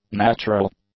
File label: "natural", english; adjectives